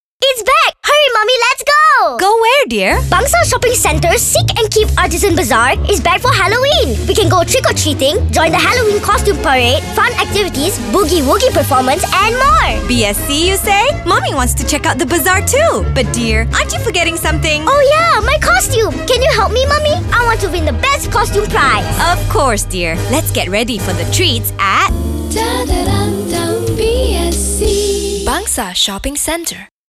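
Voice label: English; BSC 2018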